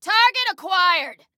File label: Target Acquired, Female